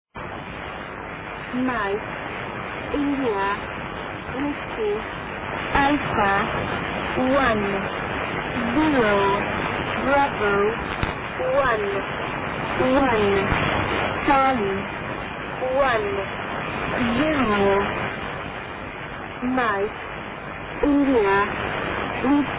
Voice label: e10 marker 5230 khz am; mossad